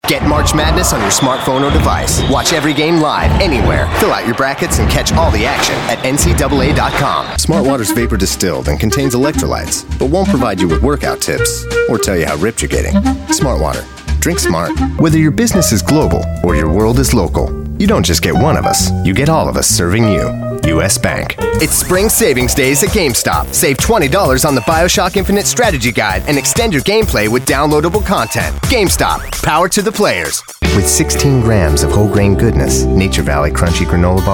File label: US English Male